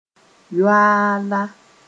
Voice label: "yoaala", Mooré

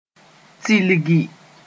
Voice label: "tilgi", Moore

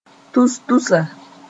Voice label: "tus‑tusa", moore

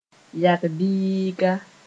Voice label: "yag-biiga", Moore

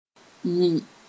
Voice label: "yɩ", Mooré

